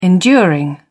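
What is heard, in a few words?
"enduring", British English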